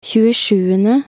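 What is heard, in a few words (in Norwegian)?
"tjuesjuende" Norwegian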